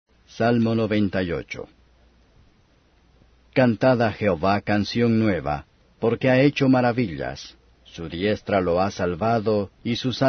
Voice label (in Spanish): salmos spanish